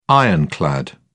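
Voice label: "ironclad", British English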